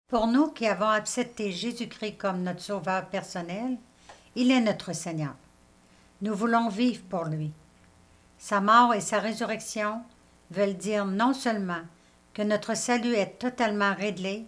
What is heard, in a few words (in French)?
narration et lect; 1 cor 5 celebrons donc; Cantate : Christ notre Pâque; Pâques